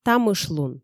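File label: "тамышлун", Komi, female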